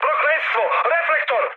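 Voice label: Serbian searchlite mood high; speech; War Thunder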